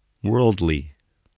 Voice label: "worldly", voice, english

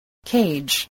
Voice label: "cage", spelling

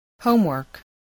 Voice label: "homework", voice spelling

